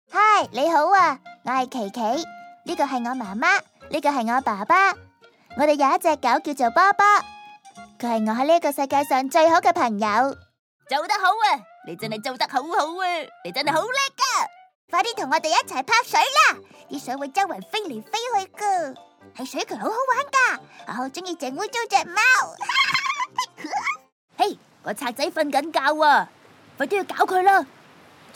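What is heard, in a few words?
Cantonese Toys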